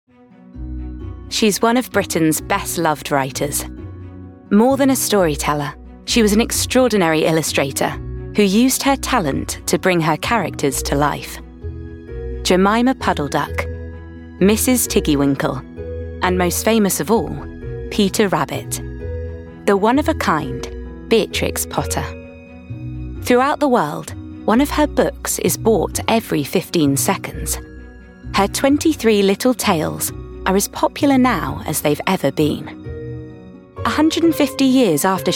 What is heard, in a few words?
Documentary